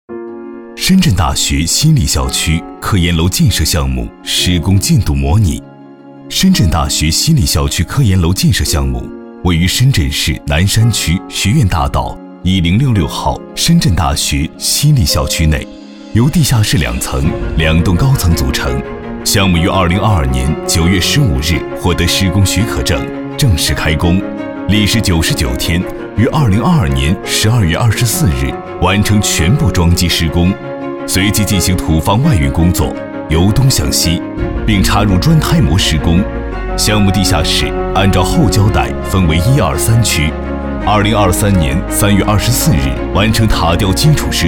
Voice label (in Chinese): 男 工程汇报; 深圳大学西丽校区